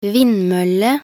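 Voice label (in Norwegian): "vindmølle", Norwegian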